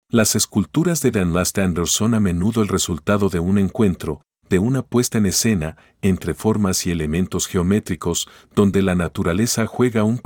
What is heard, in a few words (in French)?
espagnol